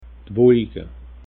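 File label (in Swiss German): "wolike", Alsatian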